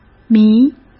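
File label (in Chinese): "迷", Mandarin Chinese; chinese flashcards; hsk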